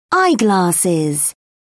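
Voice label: "eyeglasses", British English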